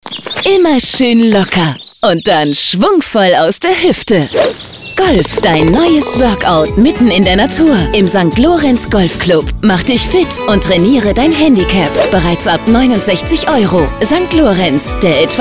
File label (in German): Radiospot